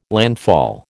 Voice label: "landfall", American

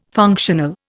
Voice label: "functional", Indian

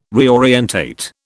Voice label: "reorientate", British

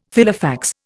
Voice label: "Filofax", Indian

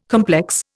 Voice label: "complex", Indian

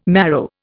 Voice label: "marrow", Indian

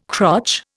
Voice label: "crotch", Indian

